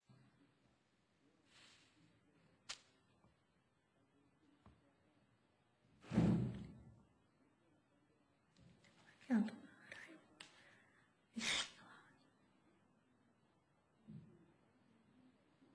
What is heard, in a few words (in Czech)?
hlaseni; rozhlas